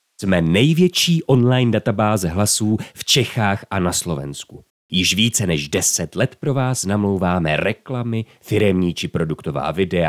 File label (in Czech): Reklama, voice-over; dabing; audiokniha